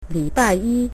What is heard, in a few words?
monday, mandarin